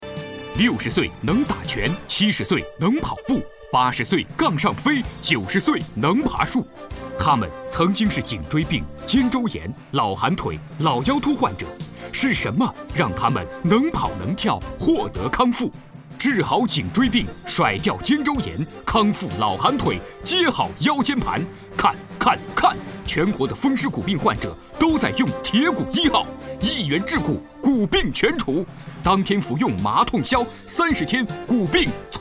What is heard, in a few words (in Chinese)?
男 促销 电视促销